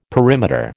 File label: "perimeter", American